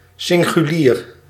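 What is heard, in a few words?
"singulier", Dutch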